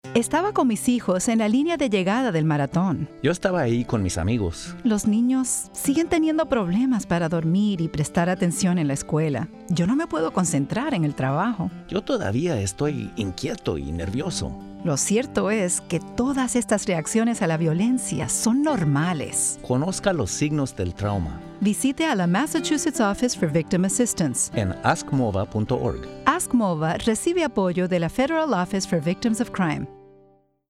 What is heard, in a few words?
Spanish PSA; MOVA